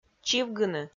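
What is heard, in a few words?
"чивгыны", Komi, female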